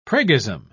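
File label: "priggism", British English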